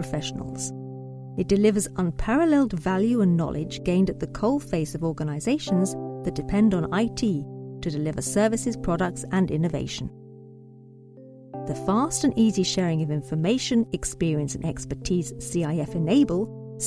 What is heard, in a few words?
Corporate Voice; Voice Reel 2020